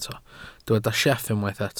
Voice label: "sieff", Welsh